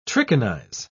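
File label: "trichinize", British English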